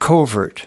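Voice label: "covert", US English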